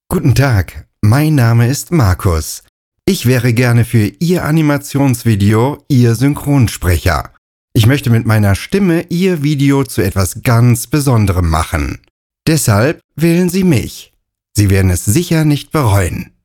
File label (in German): Hochdeutsch